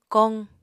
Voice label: "koq", Apatani

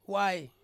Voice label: "wai", Ansus; rope

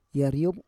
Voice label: "yariob", Roon

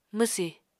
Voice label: "mwsì", Apatani

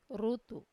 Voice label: "rutu", louse, wamesa

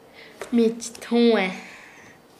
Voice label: Nasa Yuwe; orilla de la olla

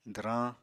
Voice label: "dwrañ", Apatani

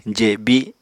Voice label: "jebi", Apatani

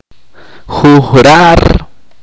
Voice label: "lanzar", chorti

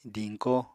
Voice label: "diñko", Apatani

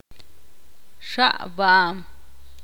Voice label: ixil; vomitar

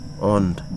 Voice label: "tree", Wahgi; lae unitech

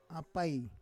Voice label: "apai", Roon

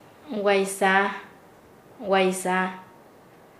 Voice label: "comprador", Nasa Yuwe; paez

